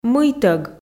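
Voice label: "мыйтӧг", Komi, female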